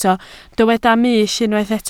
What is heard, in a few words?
"mull", Welsh